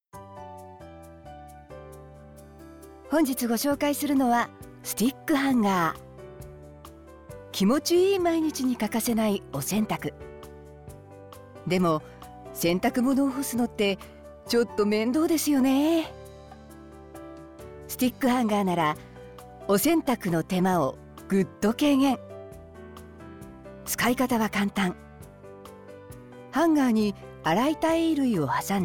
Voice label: 通販

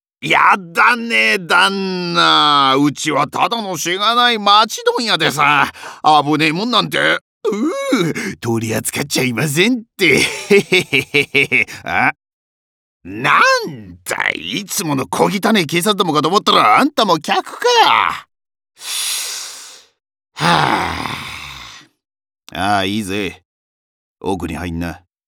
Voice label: セリフ 50代 町商人の裏の顔

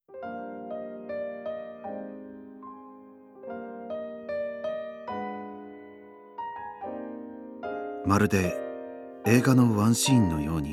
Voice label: ウィスパー ジュエリー PV

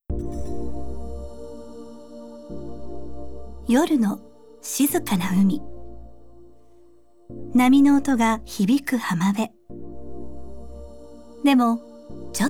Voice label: ゆったり、やさしく; 「ウミガメの赤ちゃん」

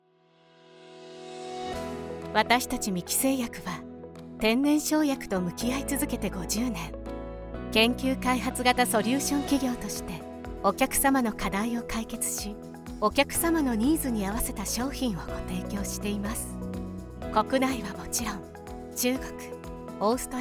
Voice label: 製薬メーカー：ナチュラル

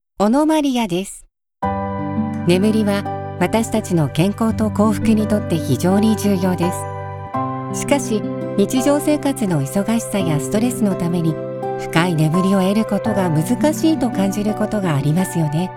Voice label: ゆったり、優しく; 「健康睡眠」

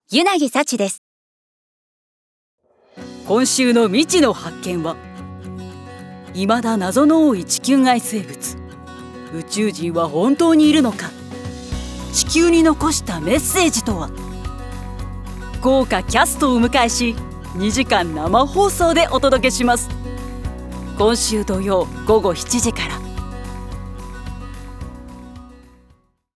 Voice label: CM ゆったり ネイチャー; 「未知の発見」

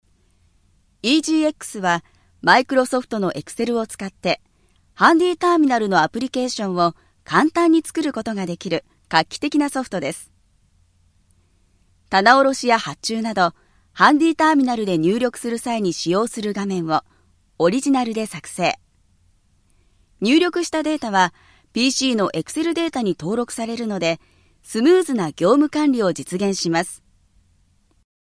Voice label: 強めハキハキ

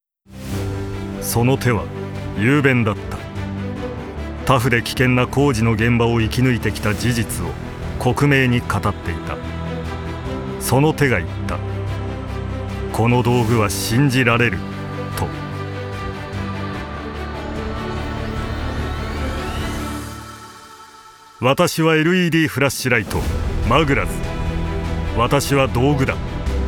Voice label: ナレーション 重厚感

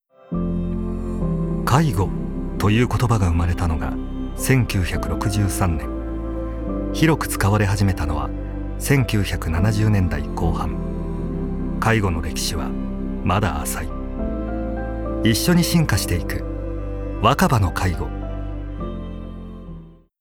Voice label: ナレーション ストレート

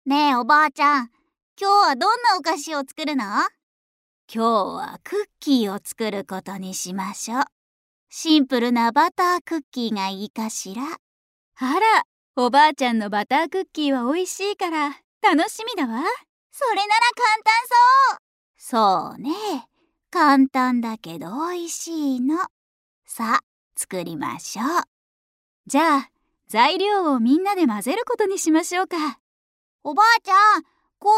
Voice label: 台詞かけあい（男の子、女の子、ママ、おばあちゃん）

